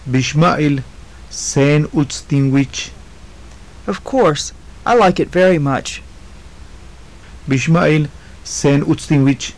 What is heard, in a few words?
phrases, maya